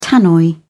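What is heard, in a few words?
"tannoy", British English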